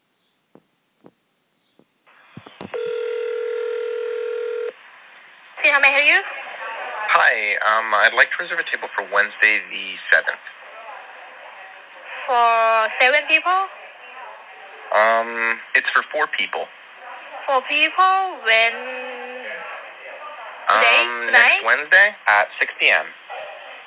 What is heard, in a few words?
Google Duplex male